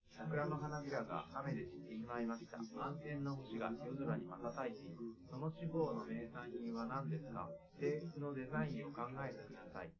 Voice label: male live rec 5cm sim; SASSEC07

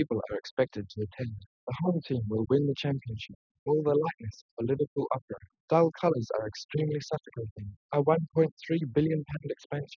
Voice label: male inst; SiSEC10; underdetermined

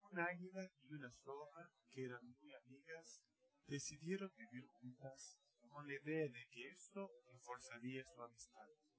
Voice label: male4 inst sim

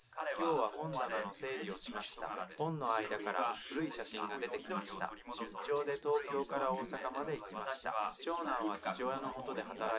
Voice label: male liverec 250ms 5cm

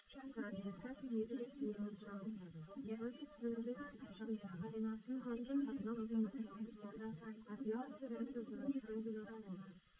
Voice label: female liverec; SiSEC11; underdetermined

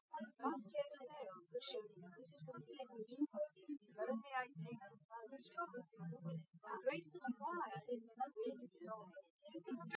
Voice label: female, live rec; SiSEC08; SiSEC underdetermined

